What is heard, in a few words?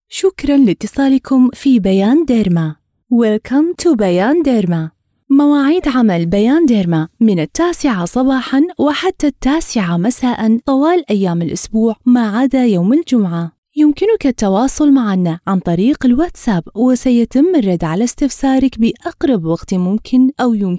derma IVR; commercial ads